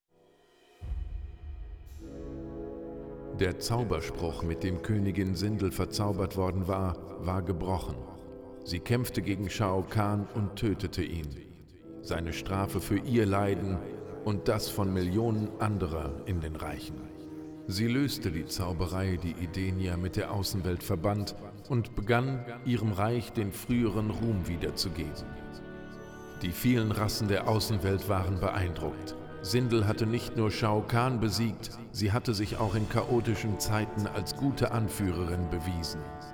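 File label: music voice over endings German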